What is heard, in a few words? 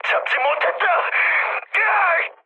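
Korean bomb failure mood high, speech; War Thunder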